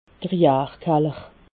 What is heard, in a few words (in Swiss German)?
"jarkaller", alsace